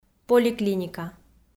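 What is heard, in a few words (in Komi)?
"поликлиника", Komi, female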